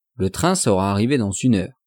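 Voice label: "le train sera arrive dans une heure", French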